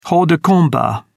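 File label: "hors de combat", British English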